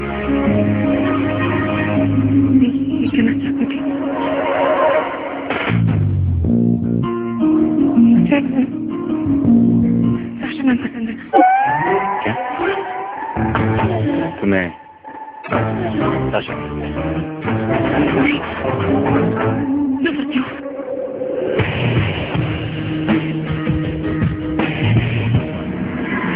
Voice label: Funny Dialogue

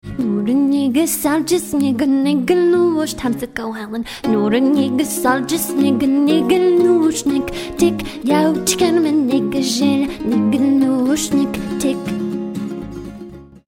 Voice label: Speak Lithuanian

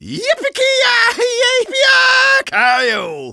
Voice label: Engineer Cheering

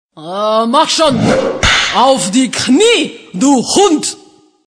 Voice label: German; Domina

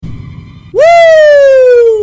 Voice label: Whooooo; CoryxKenshin

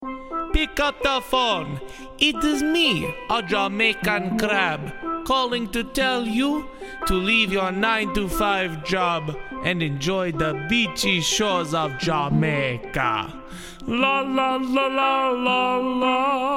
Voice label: Jamaican Crab Call

